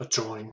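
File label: "adjoin", US English; pronunciations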